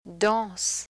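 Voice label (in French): "danse", French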